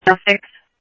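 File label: Simulcast police department, scanner audio trunk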